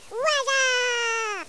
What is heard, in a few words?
children taunt; valve